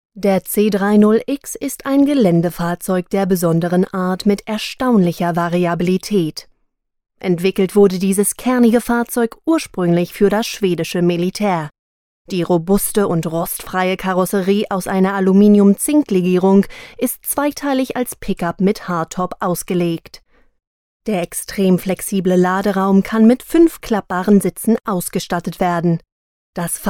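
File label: German, Corporate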